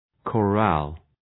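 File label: "chorale", English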